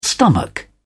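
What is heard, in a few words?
"stomach", English, British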